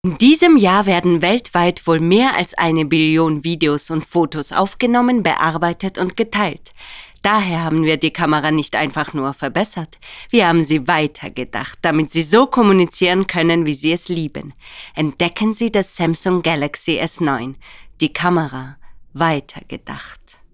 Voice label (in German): Werbung, sprecherin